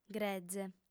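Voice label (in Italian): "greze", lingua sarda, female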